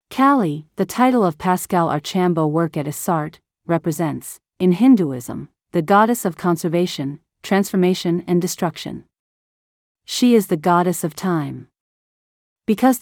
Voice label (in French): anglais